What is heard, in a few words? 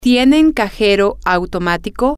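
Spanish, female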